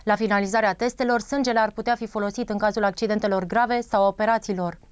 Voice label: natural fem